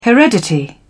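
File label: "heredity", British English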